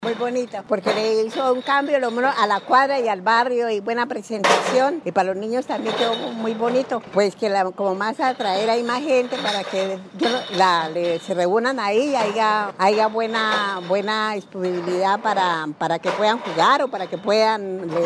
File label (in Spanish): habitante del barrio Norte Bajo